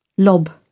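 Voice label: "lob", English, British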